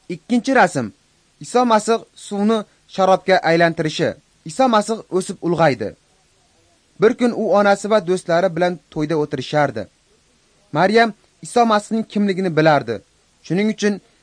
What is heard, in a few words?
uzbek northern